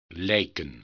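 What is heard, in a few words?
"lijken", Dutch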